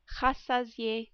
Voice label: "rassasier", French

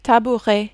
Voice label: "tabouret", French

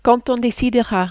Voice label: "quand on", French

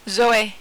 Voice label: "Zoe", French

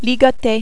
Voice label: "ligoter", French

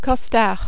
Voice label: "costard", French

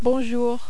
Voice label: "bonjour", French

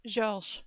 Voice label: "Georges", French

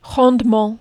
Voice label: "rondement", French

